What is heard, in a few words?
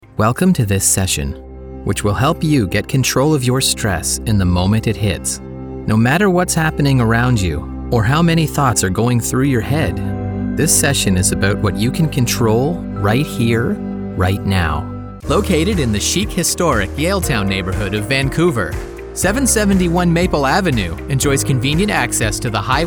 english canadian narration